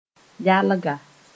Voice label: "yalga", Moore